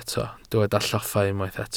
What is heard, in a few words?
"lloffa", Welsh